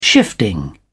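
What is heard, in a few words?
"shifting", British English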